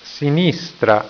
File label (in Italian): "sinistra", italiaans